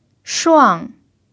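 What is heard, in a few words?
"shuang" fourth tone, chinese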